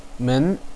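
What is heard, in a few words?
"men2", Chinese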